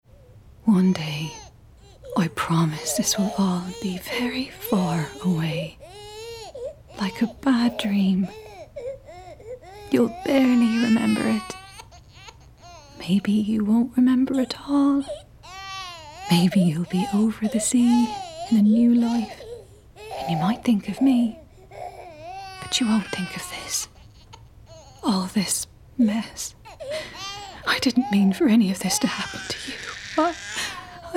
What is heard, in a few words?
Video Game Irish Mother